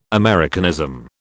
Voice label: "Americanism", British